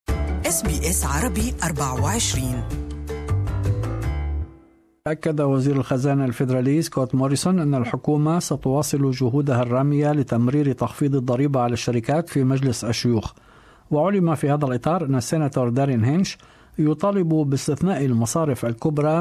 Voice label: arabic; SBS Arabic; Treasurer Scott Morrison says the government will continue to push for its company tax cuts, despite opposition from key senators.

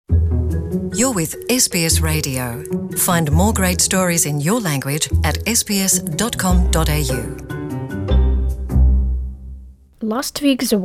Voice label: ukrainian; SBS Ukrainian; UKRAINE - US: THE FAST-MOVING SCANDAL

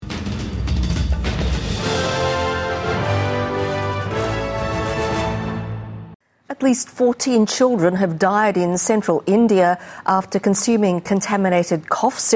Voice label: english

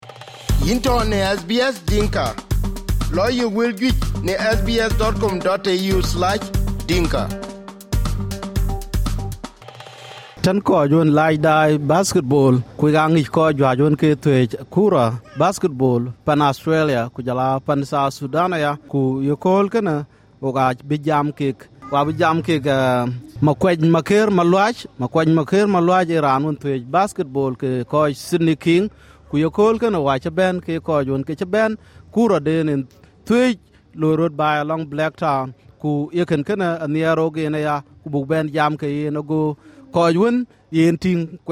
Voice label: dinka, podcast; SBS Dinka

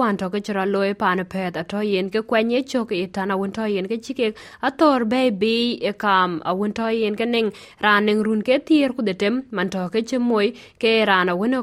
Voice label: dinka, podcast; Raan ci mooc dhäŋ Perth; SBS Dinka

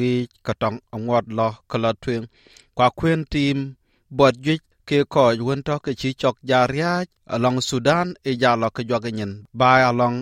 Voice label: dinka; Kɔc aɣöc cök pan Thudan; SBS Dinka

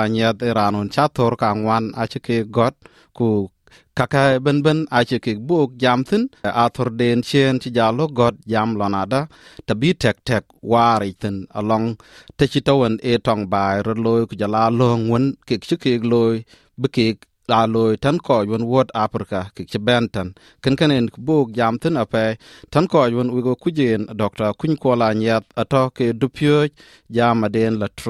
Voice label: dinka, podcast; Dɛ̈ɛ̈k piny tɛ bï lööŋ ye rieu piny gël tɔŋ baai tɛn kɔc wuöt African-Australian; SBS Dinka

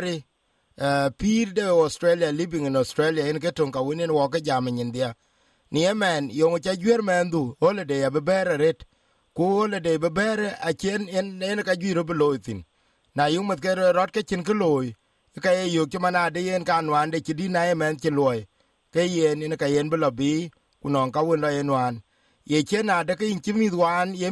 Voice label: dinka, podcast; Ye ciɛ̈t ke yïn bë mïthku laar ëno në ye nïïn benë thukul ke liukɛ̈?; SBS Dinka

